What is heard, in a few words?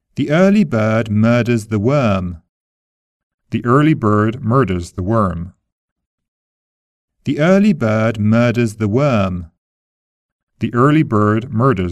american british vowel The early bird